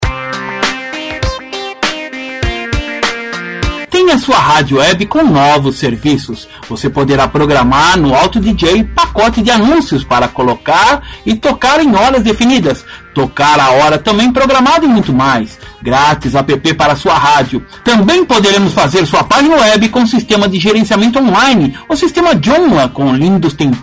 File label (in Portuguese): spot radio web; vinhetas